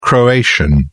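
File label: "croatian", British English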